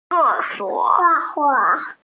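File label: "ce4 suo3", Mandarin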